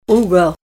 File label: corner, Ninilchik Russian